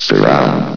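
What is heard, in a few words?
"surround", male; vox; source engine; hl1; video games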